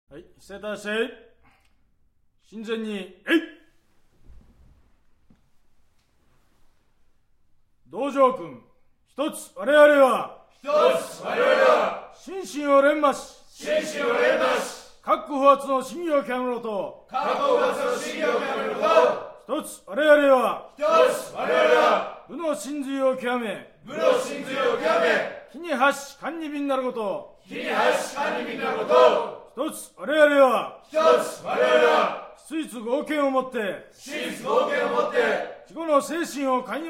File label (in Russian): Кёкусинкай Клятва на японском